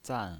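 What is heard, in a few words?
"zan" Mandarin Chinese pinyin voice, fourth tone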